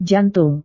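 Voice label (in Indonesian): "jantung", Indonesian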